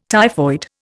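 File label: "typhoid", Indian English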